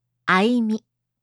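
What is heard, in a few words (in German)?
"Aimi", japanische Mädchennamen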